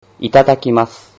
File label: "itadakimasu", Japanese